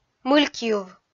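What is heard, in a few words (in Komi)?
"мылькйыв", Komi, female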